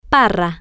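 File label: "parra", Spanish, female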